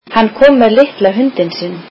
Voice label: icelandic he came with his small dog

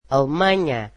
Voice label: "Germany", Portuguese

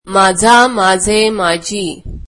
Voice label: marathi my

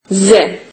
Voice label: turkish alphabet z

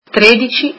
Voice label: thirteen, italian

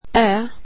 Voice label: swedish alphabet aa

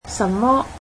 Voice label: cantonese what

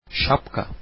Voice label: turkish hat

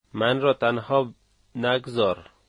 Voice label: dont leave me, dari

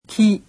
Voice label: japanese alphabet "ki"

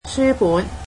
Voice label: "books", Cantonese

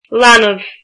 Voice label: "child", Irish

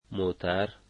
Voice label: "car", Dari

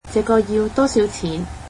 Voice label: "how much is this", Cantonese

